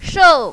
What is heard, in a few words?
"shou4", mandarin, female